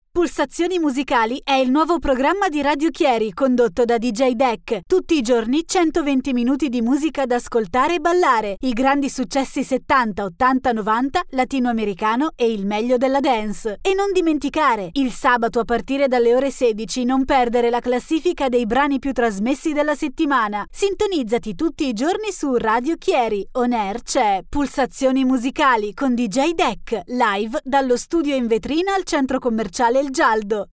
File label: female italian voice over sales